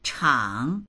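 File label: "chang3", Mandarin Chinese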